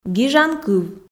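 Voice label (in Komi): "гижан кыв", Komi, female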